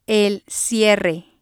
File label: zipper, spanish